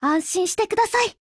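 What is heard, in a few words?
SKILL Japanese; CAR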